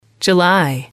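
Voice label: "july", English, female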